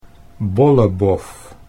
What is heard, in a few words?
"bollebof", Dutch